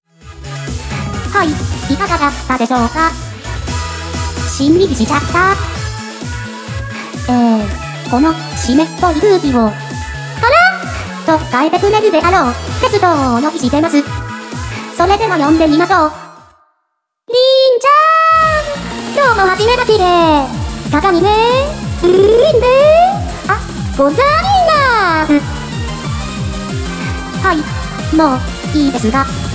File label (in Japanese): フリートーク; Vocaloid; Hatsune Miku; みくのかんづめ